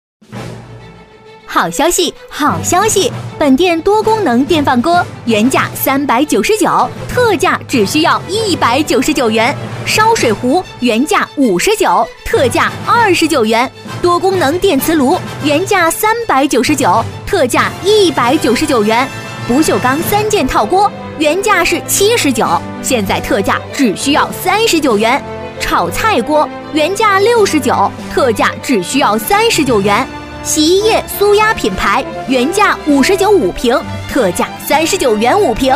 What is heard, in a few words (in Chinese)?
促销超市